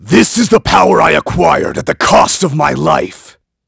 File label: Vox, en; kingsraid; voices heroes